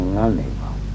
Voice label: "nganə-wil" muskduck; 1964

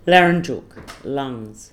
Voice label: "larinjuk" lungs

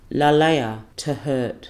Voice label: "lalaya" to hurt

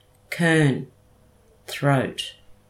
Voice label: "kurn" throat

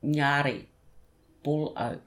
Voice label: "ngarri" bull-oak

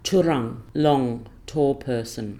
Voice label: "tyurung" long tall person

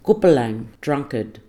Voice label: "kupulang" drunkard

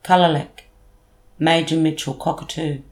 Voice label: "kalalek" major mitchell cockatoo

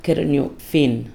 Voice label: "kerrinyuk" fin

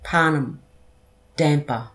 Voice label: "panem" damper

